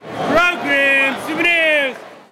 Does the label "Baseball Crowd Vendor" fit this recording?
Yes